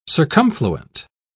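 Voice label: "circumfluent", British English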